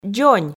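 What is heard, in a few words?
"дзонь", Komi, female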